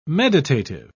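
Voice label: "meditative", English, British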